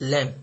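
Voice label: "lem", Nigerian Pidgin, male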